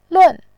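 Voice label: "lun", Mandarin, tone 4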